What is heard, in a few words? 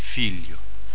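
"figlio", Italian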